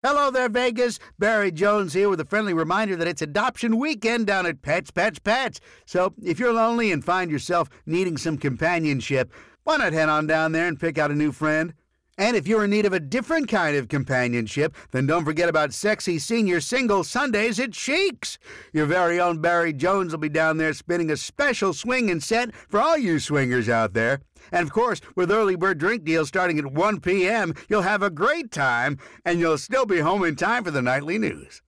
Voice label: Classic Vibes 101.4 (Commentary 5)

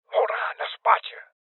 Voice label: Voices Guardian Male Old; Rebel Cops

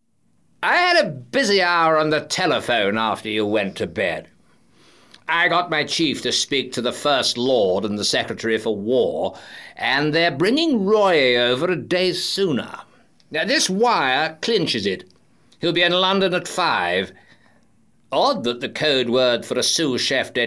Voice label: Voices Stereo; The 39 Steps

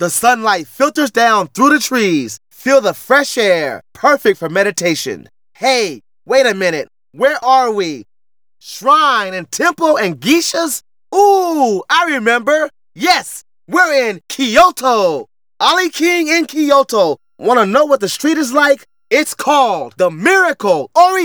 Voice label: Narration; Ollie King

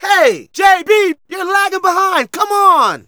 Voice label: Narration

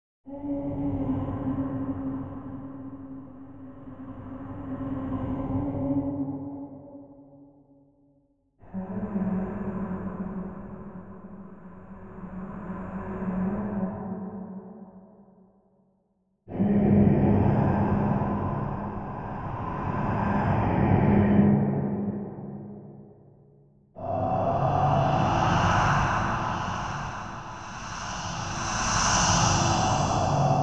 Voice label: Voice Terryifing

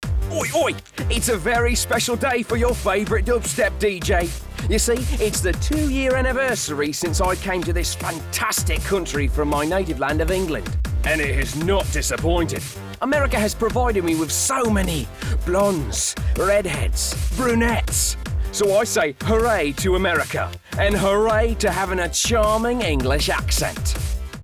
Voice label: Dub Factory 92.2 (Commentary 4)

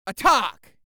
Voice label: Voices Jamaican Attack

